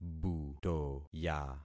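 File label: "butoya", concatenated syllables; Affixing experiment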